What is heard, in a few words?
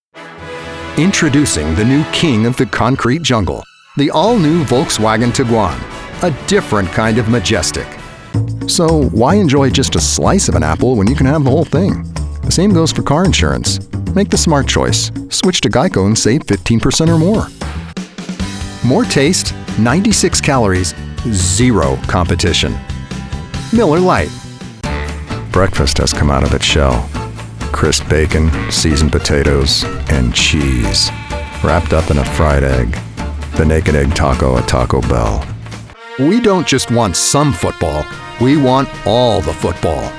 Commercial